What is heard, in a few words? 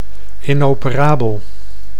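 "inoperabel", Dutch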